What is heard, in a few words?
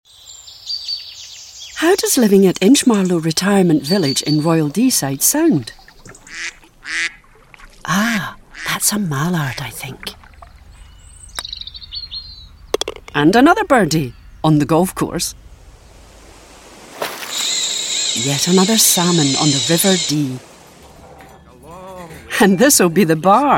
Radio Ad